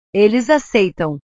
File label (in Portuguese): "eles aceitam", portugues; verbos